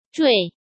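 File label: "坠", Mandarin Chinese; hsk; chinese-flashcards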